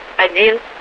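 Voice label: Russian speech, Mi-8MT RI65; DCS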